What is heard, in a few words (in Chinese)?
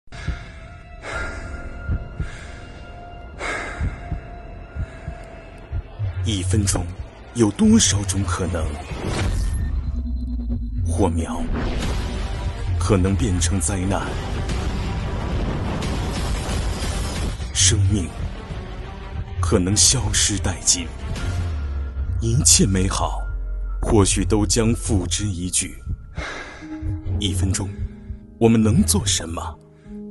男 消防安全宣传